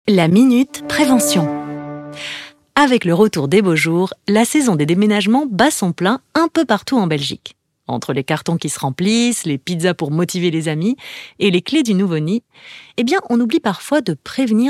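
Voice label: advert French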